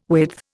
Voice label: "width", Indian

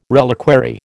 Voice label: "reliquary", American

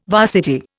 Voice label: "varsity", Indian

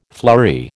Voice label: "flurry", American

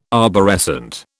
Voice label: "arborescent", British

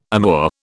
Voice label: "amour", British

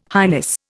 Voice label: "highness", Indian

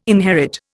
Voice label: "inherit", Indian

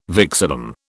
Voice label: "vexillum", British

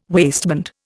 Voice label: "waistband", Indian

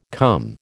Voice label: "come", American

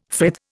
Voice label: "frith", Indian

